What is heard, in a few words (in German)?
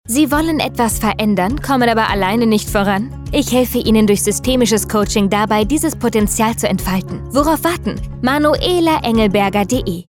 Funkspot; Coaching